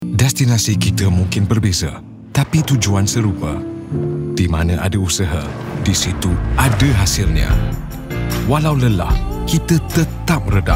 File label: Malay, Commercial Smooth, gravely, soothing; BM : OLD TOWN WHITE COFFEE Commercial Smooth, gravely, soothing